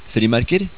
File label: "frimerke", Norwegian